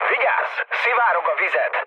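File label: Hungarian damaged water mood low, speech; War Thunder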